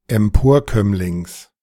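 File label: "Emporkömmlings" German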